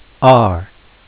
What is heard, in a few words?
are, Phonics Sounds